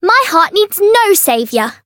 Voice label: Voice variable chant; thillelille

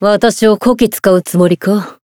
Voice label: zodiac join, jp; xianhua

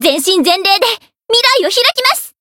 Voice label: variable chant, jp

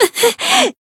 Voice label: voice damaged, jp

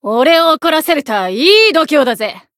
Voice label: Voice kid chant, jp

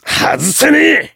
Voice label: finish, jp; hardy

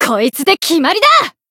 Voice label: Voice finish jp; kid

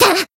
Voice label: Voice attack, jp

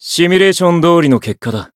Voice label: win, jp

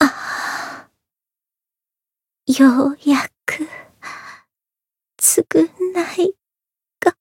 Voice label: retire, jp; sincere

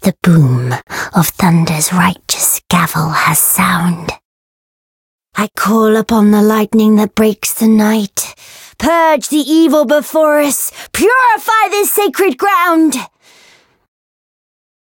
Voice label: Voice ex limitbreak

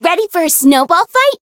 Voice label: Voice variable chant; yukino

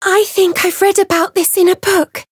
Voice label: Voice encount fear; veina

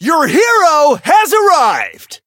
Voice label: variable chant

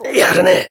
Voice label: Voice damaged, jp; hardy

